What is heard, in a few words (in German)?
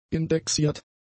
"indexiert", German; adjectives